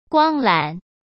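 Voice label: "光缆" Mandarin Chinese; hsk; chinese-flashcards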